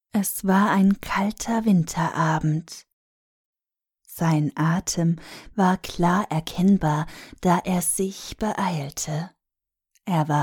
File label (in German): erotisches Hörbuch; Ohrgasmus; Die Weihnachtsfeier